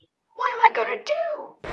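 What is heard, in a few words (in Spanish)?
Voice Acting, Spanish, female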